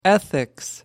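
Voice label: "ethics", British English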